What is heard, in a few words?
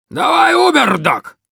demoman activate charge, ru